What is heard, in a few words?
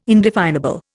"indefinable", Indian